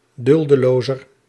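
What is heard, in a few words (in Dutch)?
"duldelozer", Dutch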